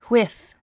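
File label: "whiff", English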